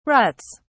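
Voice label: "ruts", British English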